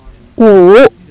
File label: "five", Chinese